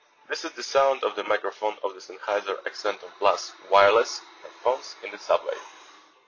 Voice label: sennheiser accentum plus wireless at the subway; Sennheiser Accentum Plus is a wireless full-size headphone and new for 2024.

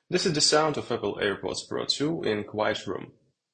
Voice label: apple airpods pro 2 eng

